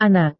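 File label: "anak", Indonesian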